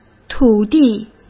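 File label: "工地", Mandarin Chinese; hsk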